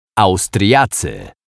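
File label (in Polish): "Austriacy", Polish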